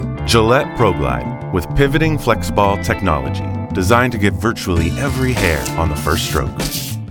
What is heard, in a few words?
Commercial; Gillette ProGlide